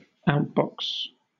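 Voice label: "outbox", British English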